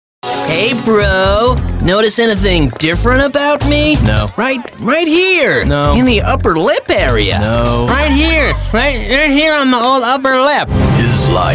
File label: animation, London